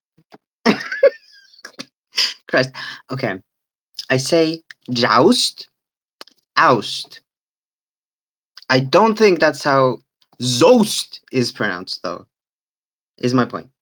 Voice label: voice message